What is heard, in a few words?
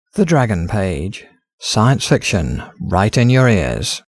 Desktop Remote Record; Desktop Recording Sample; The quality of recording depends on equipment and connection.